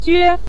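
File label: "jue" neutral tone, Mandarin Chinese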